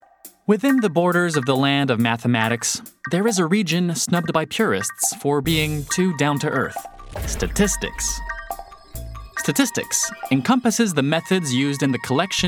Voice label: Narration docu, US; Maths; Arte